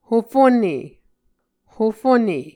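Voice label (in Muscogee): "hofone", Muscogee word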